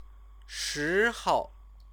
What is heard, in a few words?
"shihou", Chinese